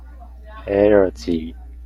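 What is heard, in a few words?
"allergy", Japanese, male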